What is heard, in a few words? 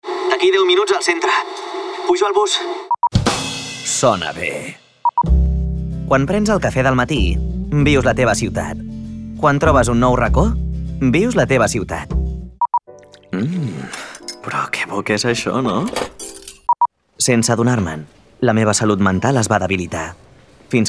INSTITUTIONAL, CATALAN, MALE